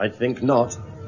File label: "i think not", male; Voice